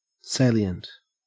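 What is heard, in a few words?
"salient", Australian English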